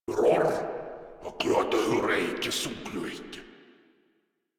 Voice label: Patrol, Grineer Male; Warframe